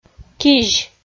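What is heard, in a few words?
"кизь", Komi, female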